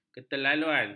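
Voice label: "keterlaluan", Malay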